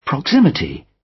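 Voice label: "proximity", British; Flora and fauna, agriculture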